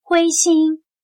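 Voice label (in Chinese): "灰心", Chinese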